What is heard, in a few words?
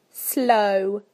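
"slow", British English; PictureDictionary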